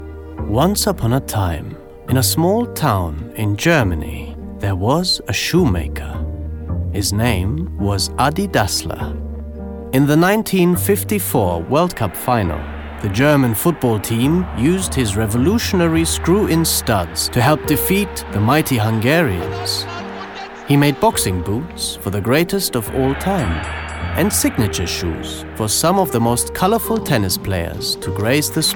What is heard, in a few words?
English German Accent